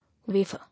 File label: "Wafer", English